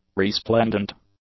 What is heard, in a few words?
"resplendent", English; adjectives